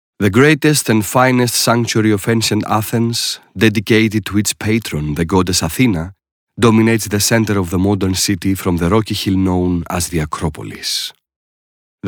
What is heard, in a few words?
Greek English